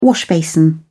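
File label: "washbasin", British English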